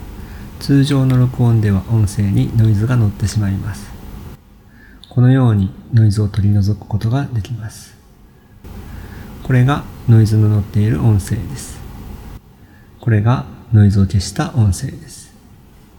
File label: noise reduction sample